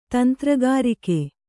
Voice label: "ತಂತ್ರಗಾರಿಕೆ", kannada

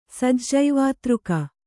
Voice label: "ಸಜ್ಜೈವಾತೃಕ", kannada

